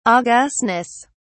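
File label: "augustness", British English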